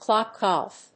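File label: "clock golf", English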